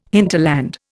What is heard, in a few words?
"hinterland", Indian